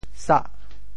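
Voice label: "sah8", Teochew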